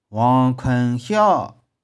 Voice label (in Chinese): "wong1khueng1hiau6", 玉環